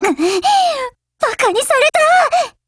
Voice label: BREAK JP; SuperShorty